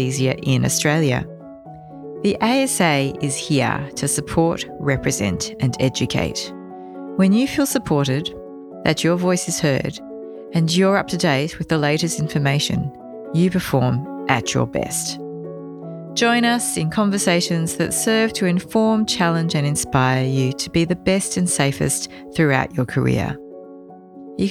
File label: podcast trailer